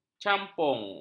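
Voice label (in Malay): "campung", Malay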